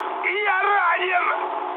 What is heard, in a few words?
damaged pilot, mood high, speech ru; War Thunder